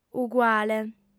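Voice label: "uguale", female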